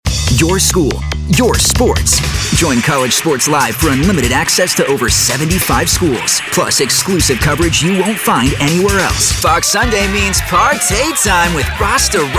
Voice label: Promo